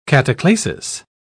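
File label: "cataclasis", British English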